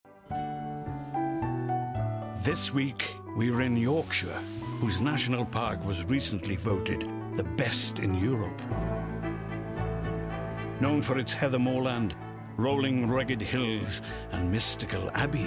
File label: NARRATIVE; Take A Hike